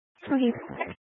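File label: Sheriff Dispatch, Scanner audio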